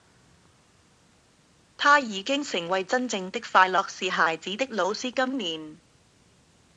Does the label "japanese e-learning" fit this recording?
No